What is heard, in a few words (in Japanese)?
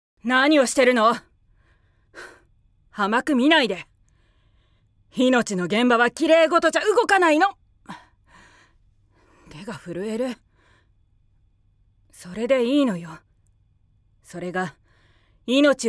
冷静高校生; 出身地 ： 静岡県